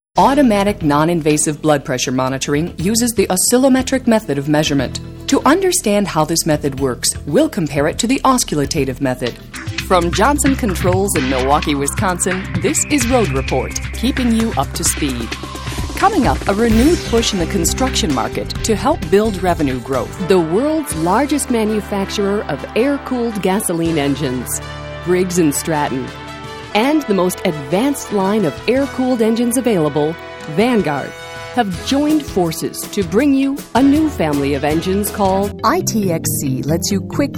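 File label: Industrial Narration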